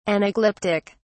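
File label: "anaglyptic", British English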